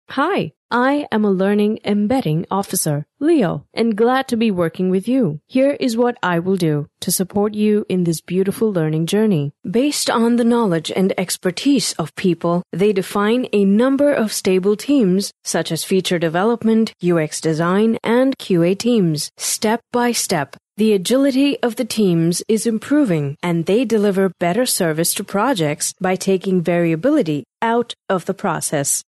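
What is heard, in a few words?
Tamil, female, English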